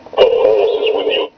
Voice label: voice changer force; ROTS